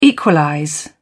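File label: "equalize", British English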